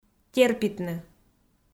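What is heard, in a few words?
"терпитны", Komi, female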